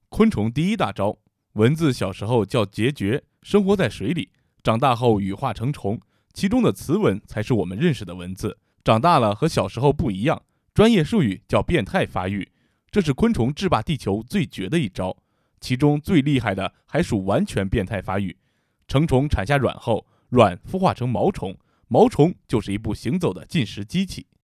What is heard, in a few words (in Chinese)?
儿童科普